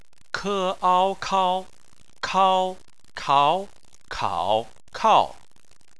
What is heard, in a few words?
"kao", chinese